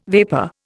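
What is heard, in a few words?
"vapour", Indian English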